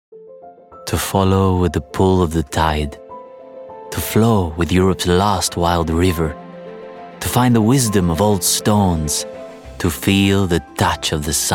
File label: albanian accent commercial; Albanian, Male, 20s-30s